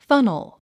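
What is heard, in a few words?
"funnel", English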